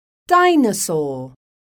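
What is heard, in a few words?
"DINOSAUR", English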